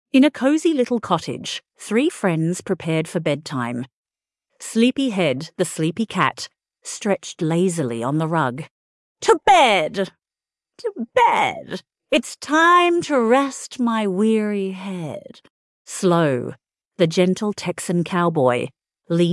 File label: fem aus story; To Bed To Bed